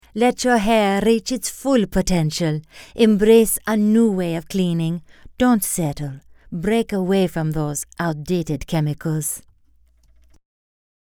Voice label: Italian